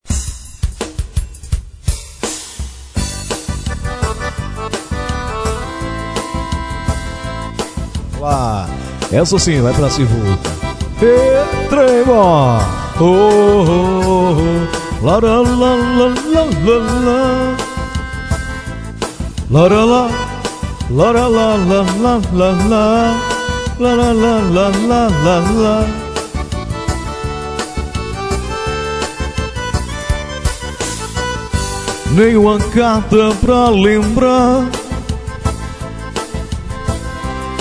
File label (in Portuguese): locutor